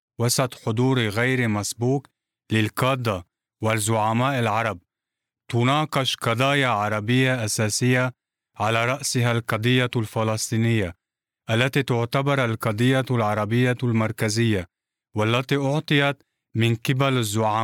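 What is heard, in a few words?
Arabic, Saudi Arabia, male, voice over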